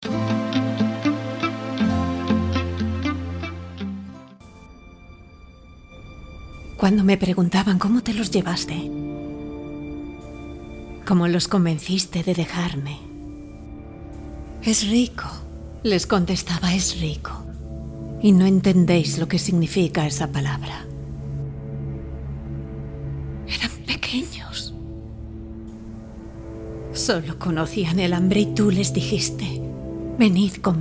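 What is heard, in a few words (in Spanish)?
doblaje España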